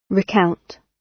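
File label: "recount", British English; Language, linguistics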